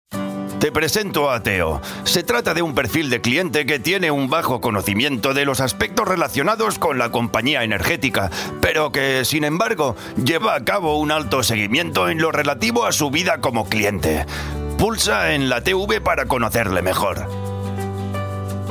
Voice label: TV shows and Movies Male Spanish (European)

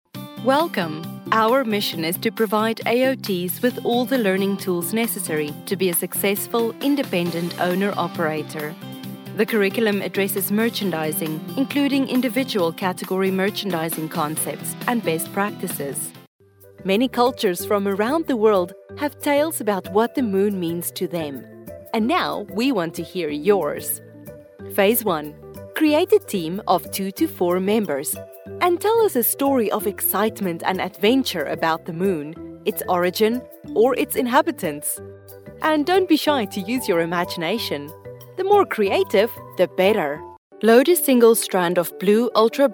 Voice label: eLearning/Training Female English (South African)

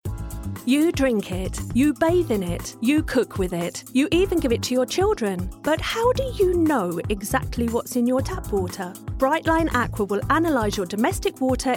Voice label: Commercials Female English (British)

